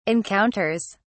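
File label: "encounters", British English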